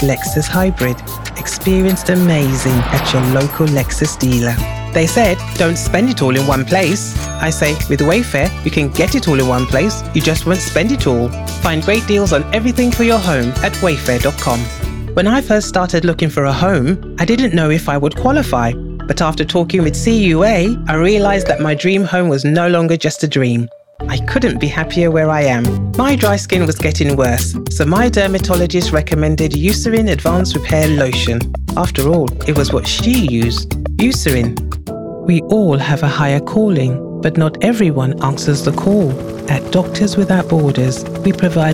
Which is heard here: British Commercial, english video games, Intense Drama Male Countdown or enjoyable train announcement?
British Commercial